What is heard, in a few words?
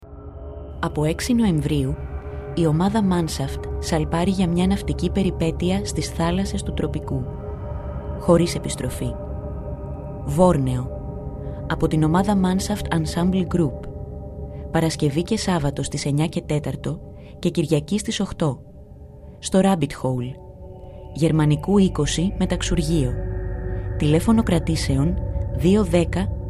Radio spot; Βόρνεο